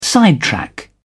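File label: "sidetrack", British English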